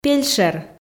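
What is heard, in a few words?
"пельшер", Komi, female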